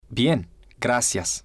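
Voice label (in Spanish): bien Gracias, spanish, male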